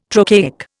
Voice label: "trochaic", Indian

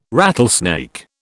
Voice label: "rattlesnake", British

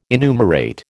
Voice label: "enumerate", American

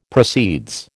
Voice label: "proceeds", American

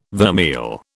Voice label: "vermeil", British

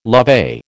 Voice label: "lovey", American

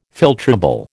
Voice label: "filtrable", American

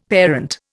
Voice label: "parent", Indian; Dictionary

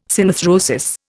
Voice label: "synarthrosis", Indian

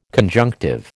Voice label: "conjunctive", American

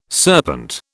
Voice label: "serpent", British

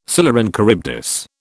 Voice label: "Scylla and Charybdis", British

